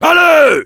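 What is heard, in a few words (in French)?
go, French; "Allez !"; Heavy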